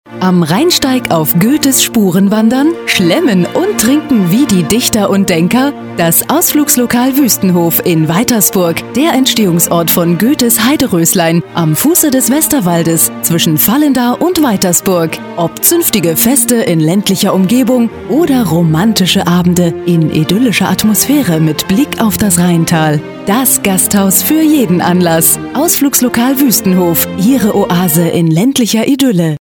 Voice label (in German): Aktueller Funkspot